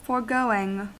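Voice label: "foregoing" US English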